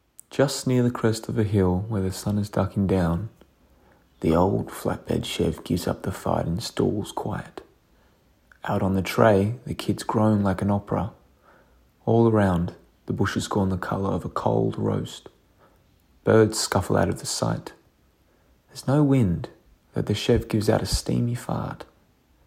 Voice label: Narrator; Cloudstreet; Narrator Test